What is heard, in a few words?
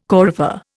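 "coryphée", Indian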